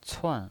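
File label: "cuan", Mandarin Chinese pinyin voice, fourth tone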